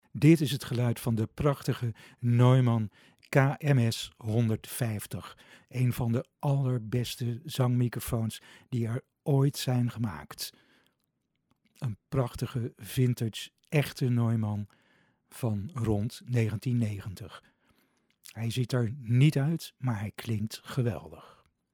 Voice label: Neumann KMS150 sound NL